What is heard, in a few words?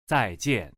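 "zai4jian4", Chinese, male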